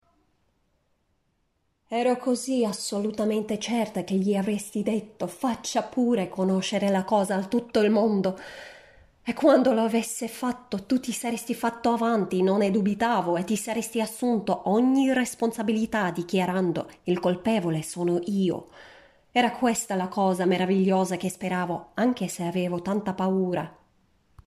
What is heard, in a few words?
ITALIAN